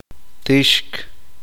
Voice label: uspanteko derramarse

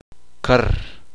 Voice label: uspanteko pescado

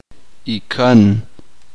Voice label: uspanteko tio el hermano menor de su madre

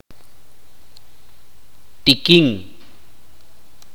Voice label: seco, itza

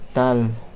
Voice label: Nawat palabras tierra; pipil

